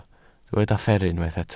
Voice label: "fferru", Welsh